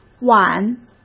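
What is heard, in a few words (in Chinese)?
"碗", Mandarin; chinese-flashcards; hsk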